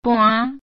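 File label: "toaⁿ", Hokkien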